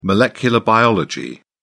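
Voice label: "molecular biology", British English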